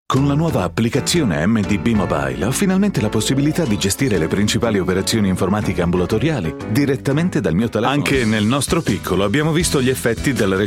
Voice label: italian narration